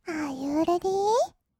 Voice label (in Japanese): "アーユーレディー", kawaii voice; ASMR; 1200 kawaii voice!!; 音ゲー